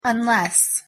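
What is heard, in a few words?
"unless", English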